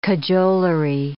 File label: "cajolery", English